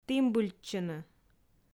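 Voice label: "тімбыльтчыны", Komi, female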